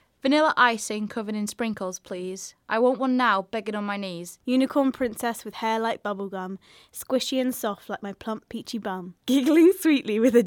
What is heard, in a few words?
Voiceover